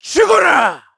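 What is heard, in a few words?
Vox Skill, Korean; KaselB; kingsraid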